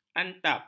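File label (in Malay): "antap", Malay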